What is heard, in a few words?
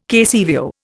"KCVO", Indian